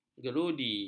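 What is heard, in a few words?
"gerudi", Malay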